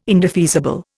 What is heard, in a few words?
"indefeasible", Indian